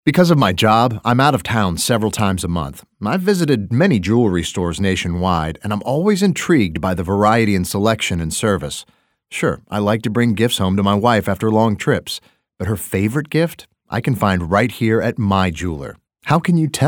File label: 40s50s Male Narration